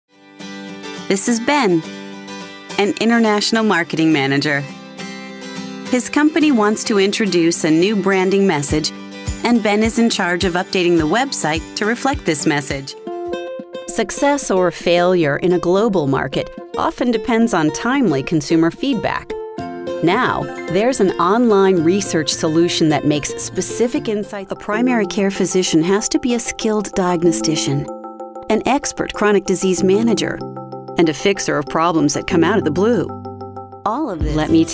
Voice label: Explainers